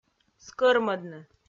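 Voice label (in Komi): "скӧрмӧдны", Komi, female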